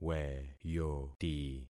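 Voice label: "weyoti", concatenated syllables; Affixing experiment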